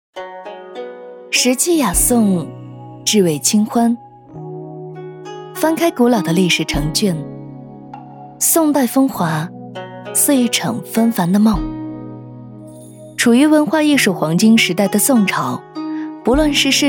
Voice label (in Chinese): 女 古风